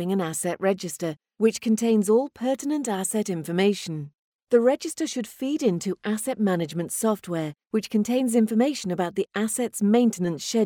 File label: E Learning